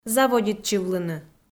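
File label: "заводитчывлыны", Komi-Zyrian, female; audio dict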